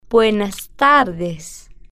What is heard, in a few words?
"buenas Tardes", spanish